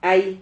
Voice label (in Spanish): "hai", Silabas